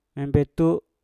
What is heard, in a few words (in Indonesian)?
"embetu" enam, Mpur